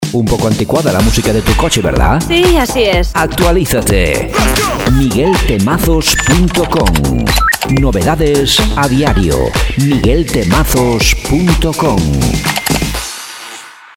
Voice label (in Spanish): PROMO